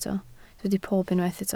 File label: "pob", Welsh